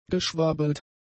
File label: "geschwabbelt", German; adjectives